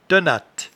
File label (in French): "tenatte", patois jura